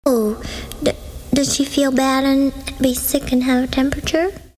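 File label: cartoon character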